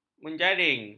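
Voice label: "menjaring", Malay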